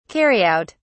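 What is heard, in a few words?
"carry out", English, British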